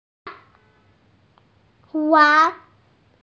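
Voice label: "wan2", Mandarin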